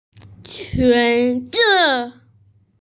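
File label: "qun zi", Mandarin Chinese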